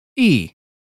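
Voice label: British phonetics sound sheep; 英语音标发音（剑桥版）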